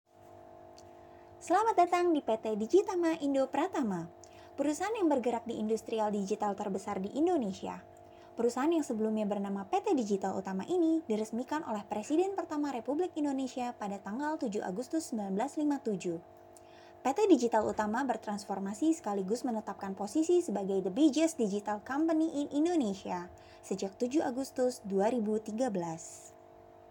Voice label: INDONESIA CASUAL